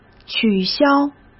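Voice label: "取消", Mandarin Chinese; hsk; chinese flashcards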